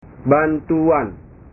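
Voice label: "bantuan", Indonesian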